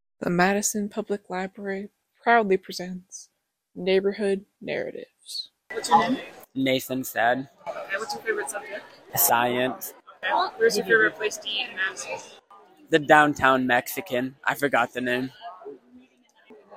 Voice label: Sad Neighborhood Narrative; Neighborhood Narratives; Tiny Stories, Huge Hearts